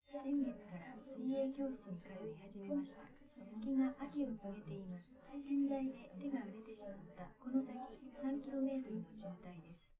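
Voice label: female, live recording; SiSEC11; underdetermined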